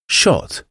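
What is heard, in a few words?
"shot", English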